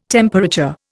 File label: "temperature", Indian